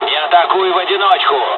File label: dialogs russian; War Thunder